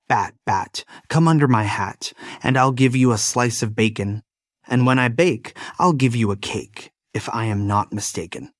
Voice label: male US; Bat Bat Come Under My Hat